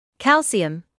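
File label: "calcium" English, British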